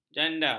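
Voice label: "janda", Malay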